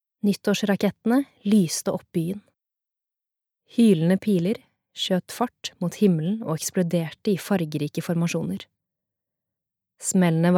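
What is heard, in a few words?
Norwegian audiobook, norwegian female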